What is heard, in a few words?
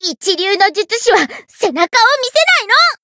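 encounter fear, Japanese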